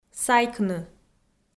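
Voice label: "сайкны", Komi, female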